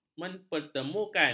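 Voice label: "mempertemukan", Malay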